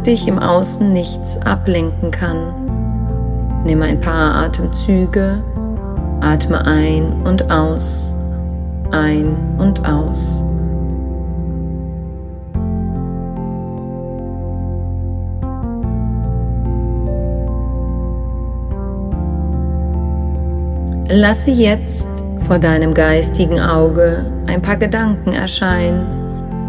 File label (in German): Meditation; Gedankenkugel